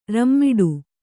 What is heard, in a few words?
"ರಾಮಠ", Kannada